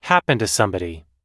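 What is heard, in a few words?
"happen to somebody", US English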